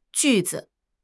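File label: "ju4 zi5", Mandarin Chinese; HSK3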